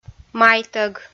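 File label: "майтӧг" Komi, female; kpv audio dict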